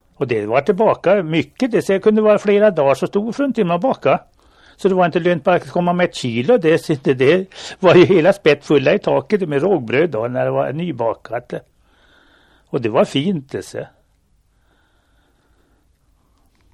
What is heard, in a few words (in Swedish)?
Jumkil, dialekter; Svenska sagor; Folkminnen